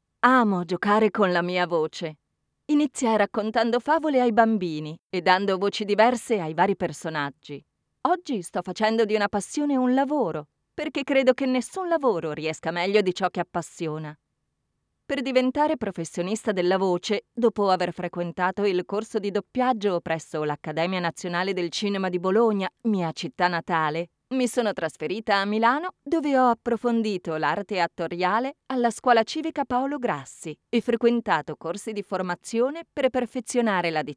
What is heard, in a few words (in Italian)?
Presentazione Italiano